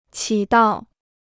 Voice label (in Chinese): "起到", Chinese